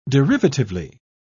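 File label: "derivatively", English, British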